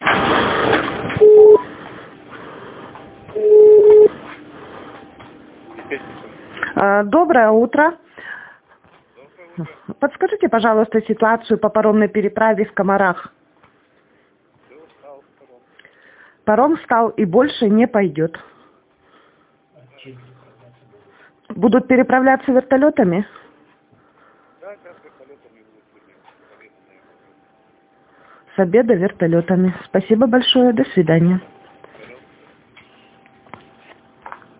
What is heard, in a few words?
phone